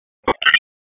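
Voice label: Raleigh Police North District Tactical, p25; wake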